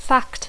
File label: "fact", English